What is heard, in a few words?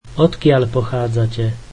Where are you from, slovak